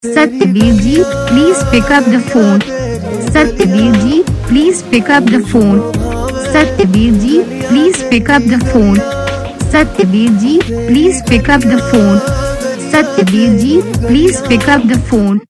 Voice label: Satyaveer ji pick up phone saying